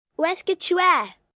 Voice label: French Where Are U, Questions, 1 child, French